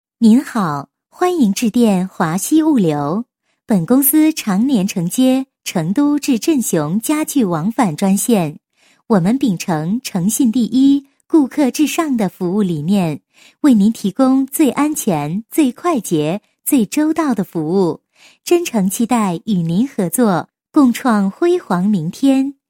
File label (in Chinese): 女 彩铃, 国语女声配音; 华西物流